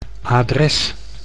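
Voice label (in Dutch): "adres", Dutch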